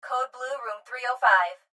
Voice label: Hospital Pa Voice, Hospital Pa Female- Code Blue; Code Blue Room 305; Pa Announcement Sound Effects; Emergency

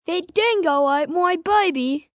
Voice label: Aussie, 1 boy, 11 yrs; Talking

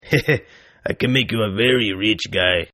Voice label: Toughguy Rich English, Tough Hispanic Male; Thug; Voice, Human; Talking Sound Effects

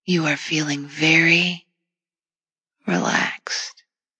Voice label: Feeling Relaxed, Female; Talking Sound Effects

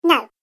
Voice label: Little Elf Says "No", cartoon voice; Cartoon Voice Sound Effects

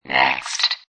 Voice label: Robot Voice "Next"

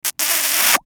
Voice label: VOICE COMICAL; Radio Voice -Kiss Sound

